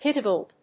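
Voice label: "pigtailed", English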